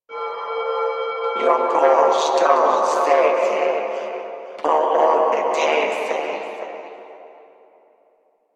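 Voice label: Announcer Female, English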